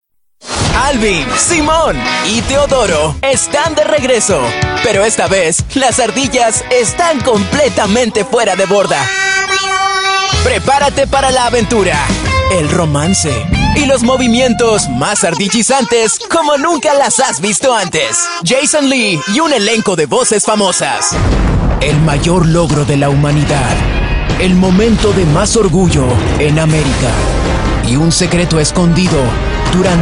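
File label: Latin American Spanish Promo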